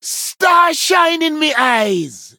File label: Male Dryad Wow; en